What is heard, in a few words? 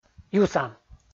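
"юса", Komi, male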